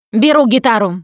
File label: melee weapons, russian, Survivor Voice Producer